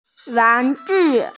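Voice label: "wan2ju4", Mandarin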